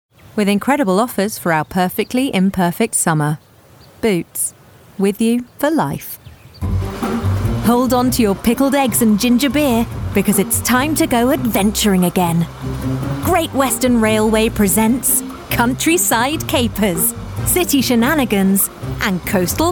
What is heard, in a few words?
Commercial